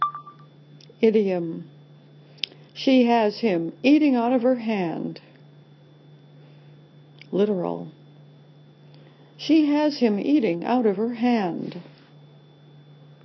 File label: Ditropic American Idiomatic literal